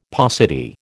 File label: "paucity", American